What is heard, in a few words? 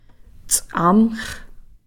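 "strong", Takelma word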